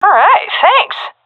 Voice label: thankful, seal fem; vo; Counter Strike Model Pack; CS:GO

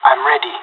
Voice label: in position, pirate; Counter Strike Model Pack; CS:GO

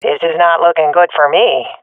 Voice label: scared, swat fem; vo; Counter Strike Model Pack; CS:GO

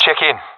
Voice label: request report, professional; vo; Counter Strike Model Pack; CS:GO

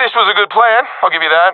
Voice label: round winning, professional epic; Counter Strike Model Pack; CS:GO

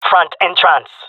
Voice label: loc front, jungle male; player vo; Counter Strike Model Pack; CS:GO

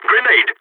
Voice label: grenade, balkan; vo

